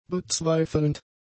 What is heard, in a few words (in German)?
"bezweifelnd", German; adjectives